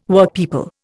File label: "Workpeople", Indian